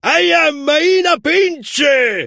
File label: scorpion hurt